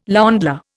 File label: "ländler", Indian